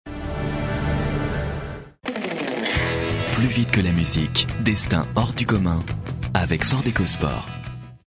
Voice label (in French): naturel, comedien voix off parrainage; FORD naturel